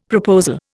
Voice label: "proposal", Indian; Dictionary